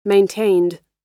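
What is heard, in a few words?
"maintained", US English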